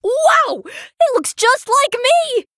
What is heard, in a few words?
Human Emote Play